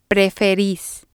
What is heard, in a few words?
"preferis", spanish